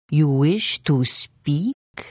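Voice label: Hello, Khajiit female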